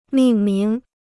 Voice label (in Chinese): "命名", Chinese